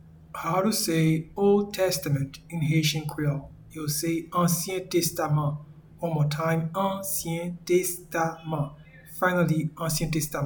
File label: Old Testament in Haitian Creole Ansyen Testaman